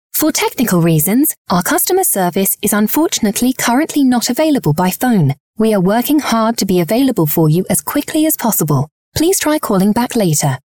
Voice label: Telefonansage Englisch; Esprit